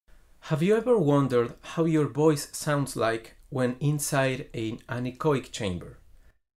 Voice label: speech reverb; pyroomacoustics demo